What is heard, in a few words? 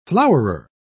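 "flowerer", British English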